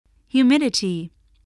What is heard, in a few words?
"humidity", voice spelling